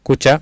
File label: "kuca", Sanskrit